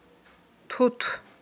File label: "тут", Aghul